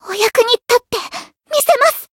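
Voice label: chant, Japanese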